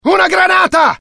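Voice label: fear grenade, IT; mohaa; dialogue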